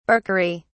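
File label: "barkery", British English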